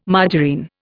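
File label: "margarine", Indian English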